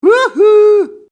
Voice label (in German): vox mann "huhu"